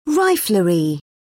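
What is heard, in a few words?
"riflery", British English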